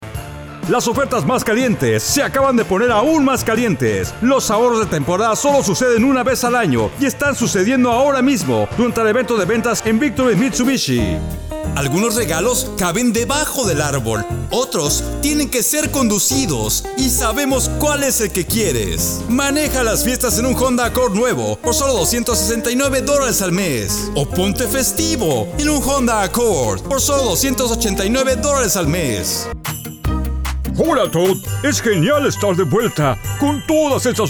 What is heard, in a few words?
Spanish